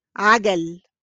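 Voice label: "عجل", Arabic; bicycles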